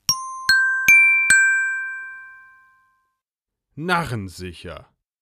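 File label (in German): "narrensicher", German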